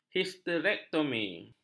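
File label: "histerektomi", Malay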